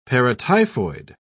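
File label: "paratyphoid", British English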